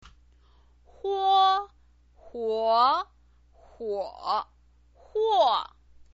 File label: "huo", chinese pinyin